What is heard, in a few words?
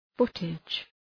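"footage", English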